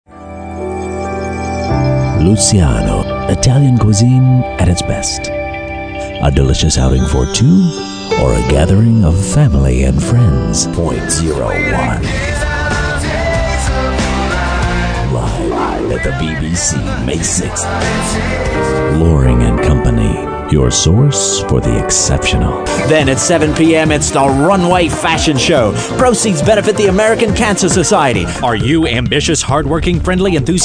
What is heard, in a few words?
Commercials